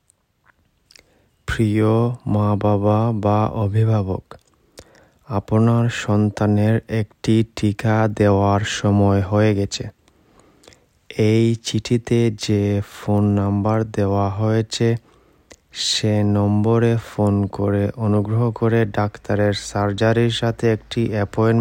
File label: Bengali Audio